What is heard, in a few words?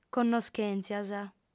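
"connoschèntzias", female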